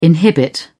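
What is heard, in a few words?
"inhibit", British English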